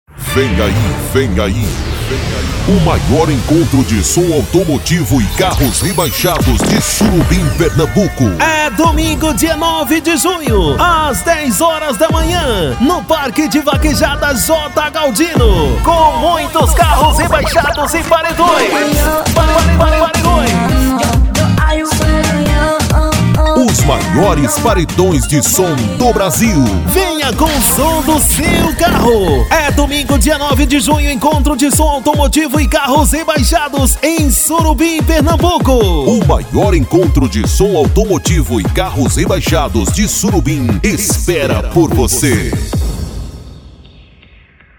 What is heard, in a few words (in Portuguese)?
voz impacto. jovem. varejo. padrão; Studio e Produtora Valentina